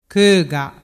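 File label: "coga", Vernazzese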